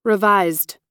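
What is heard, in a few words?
"revised", US English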